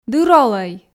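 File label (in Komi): "дыр олӧй", Komi, female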